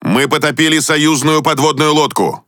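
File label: Russian Speech Destroy Ally Sub; World Of Warships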